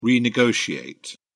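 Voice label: "renegotiate", English, British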